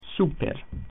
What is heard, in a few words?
"above", latin